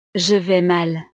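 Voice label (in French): "je vais mal", French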